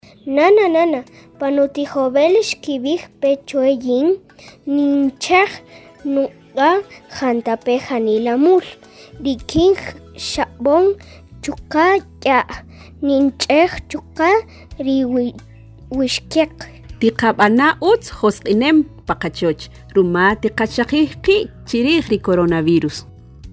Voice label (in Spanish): Ambientes limpios Kaqchiquel; prevencion